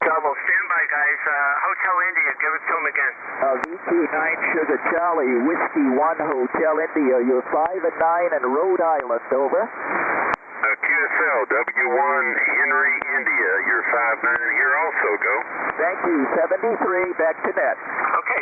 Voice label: on 20m ssb; chagos island; af-006